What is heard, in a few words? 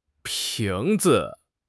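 "ping2 zi5", Mandarin Chinese; HSK3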